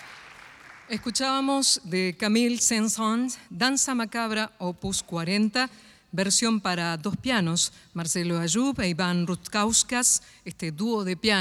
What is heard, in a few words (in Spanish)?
Locutora